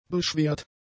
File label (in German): "beschwert", German; adjectives